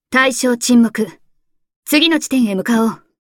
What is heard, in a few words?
Voice victory